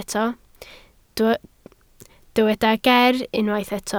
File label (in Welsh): "ger" Welsh